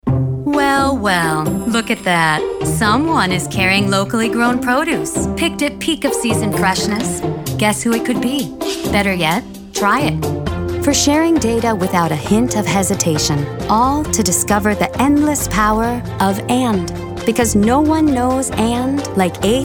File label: Commercial Voiceover